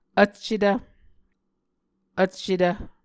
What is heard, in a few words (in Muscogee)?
"vccetv", Muscogee; Mvskoke Words with Repeated Consonants; Martin, Jack B., and Margaret McKane Mauldin. 2023. A Dictionary of Creek/Muskogee